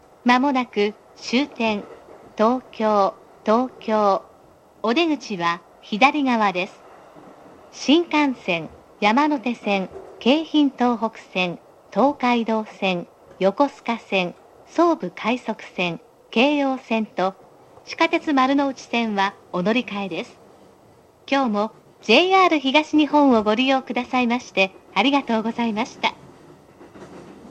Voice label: tokyo, announce; chuo rapid